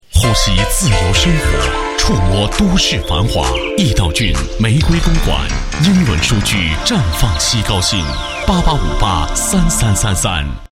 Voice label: 男25 广告, 国语男声配音; 玫瑰公馆B版

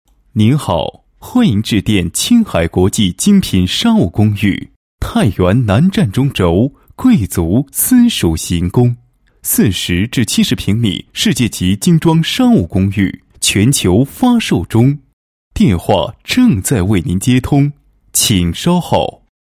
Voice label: 彩铃, 国语男声配音, 年轻; 欢迎致电国际商务公寓

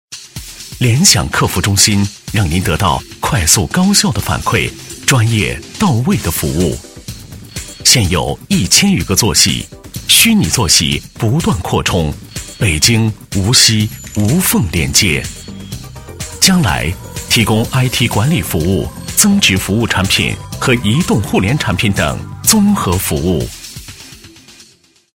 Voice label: 男 广告, 国语男声配音; 联想客服中心

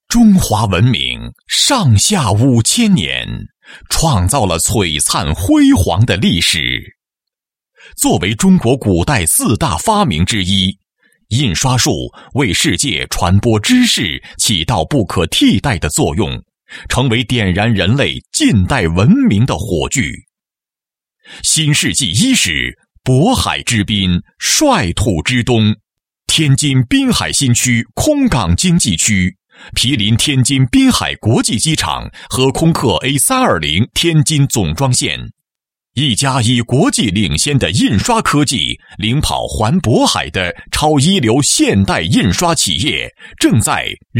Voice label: 男5, 国语男声配音; 银博集团

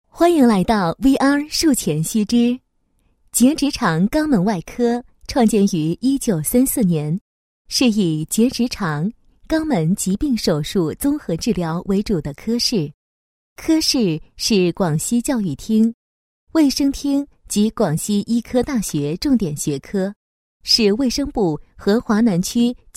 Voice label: 女 医疗解说; VR术前须知

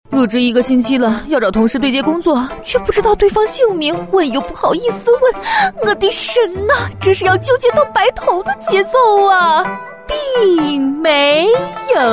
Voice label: 女 广告 角色演绎; 多风格录音; 国语女声配音

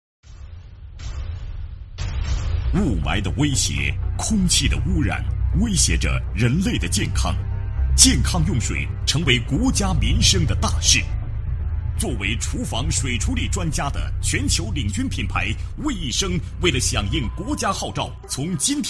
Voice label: 男 浑厚磁性 促销; 国语男声配音; 风格为一生

